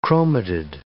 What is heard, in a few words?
"chromatid", English